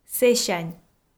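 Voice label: "сэсянь", Komi, female; kpv audio dict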